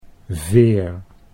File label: "veer", Dutch